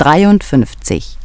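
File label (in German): "53 geschlossen", German; DISTEFORA Navigation; Mobile Navigator Notebook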